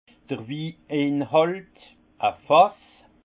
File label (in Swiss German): "wiinhalt", alsace; vin